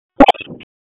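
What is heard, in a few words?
EMS DISP, radio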